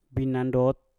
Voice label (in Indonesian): "binandot" karang, Mpur